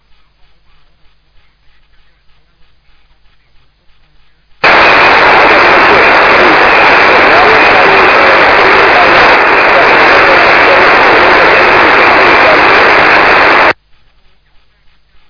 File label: LSB, amaterske radio; SSB-LIGA; ssb liga s mp3 nahravkami spojeni maj 2025